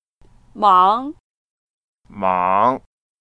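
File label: "mang2", Mandarin Chinese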